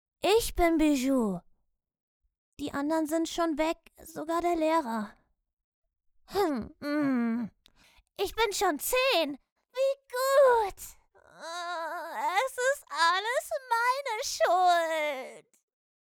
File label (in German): Sprachprobe Hoerspiel kleiner Junge